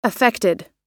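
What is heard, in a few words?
"affected", US English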